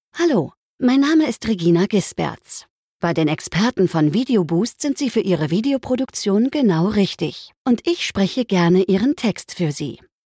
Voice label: Voiceover